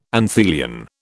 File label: "anthelion", British